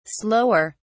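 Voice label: "slower", British English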